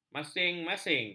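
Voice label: "masing-masing", Malay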